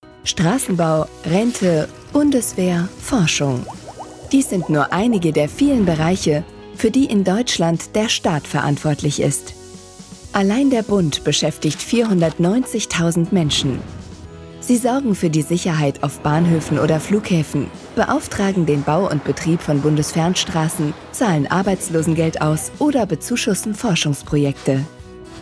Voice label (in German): erklaerfilm; Bundesrechnungshof